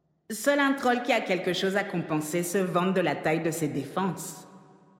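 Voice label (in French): French Female Flirt; Troll Zandalari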